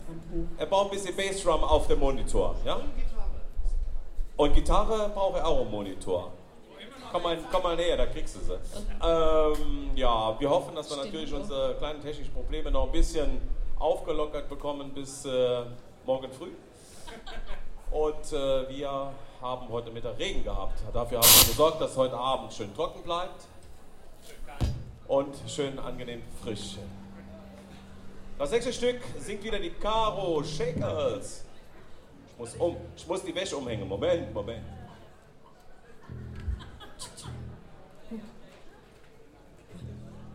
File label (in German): Ansage, Konzert; Boemundhof, Saarburg